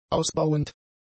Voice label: "ausbauend", German; adjectives